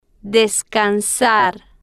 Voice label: "des-can-sar", Spanish

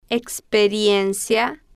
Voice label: "experiencia", Spanish

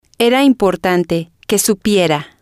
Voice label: "era importante que supiera", Spanish

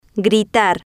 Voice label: "gritar", spanish

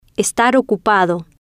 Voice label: "estar ocupado", Spanish

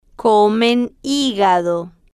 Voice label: "comen Higado", Spanish

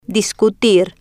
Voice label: "discutir", Spanish

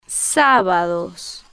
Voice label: "sa-ba-dos", Spanish

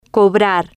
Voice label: "cobrar", spanish

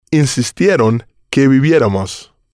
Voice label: "insistieron que viviéramos", Spanish

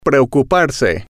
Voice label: "preocuparse", spanish

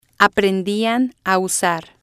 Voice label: "aprendian Usar", spanish

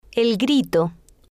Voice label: "el Grito", Spanish

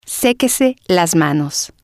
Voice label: "sequese Las Manos", spanish

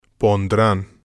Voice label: "pondran", spanish

